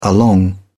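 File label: "along", British English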